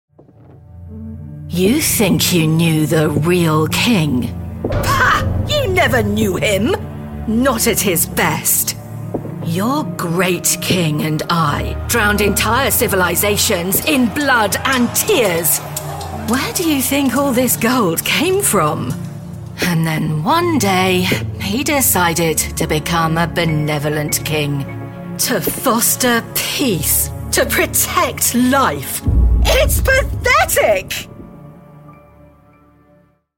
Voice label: characters evil foreboding

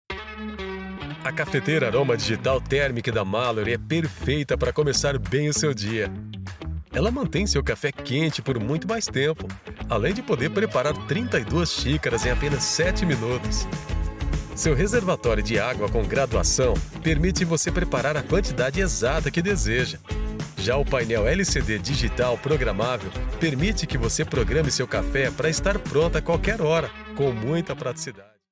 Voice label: commecial

